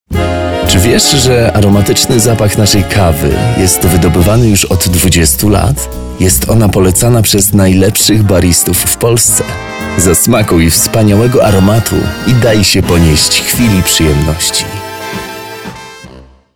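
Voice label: Polish, male, commercial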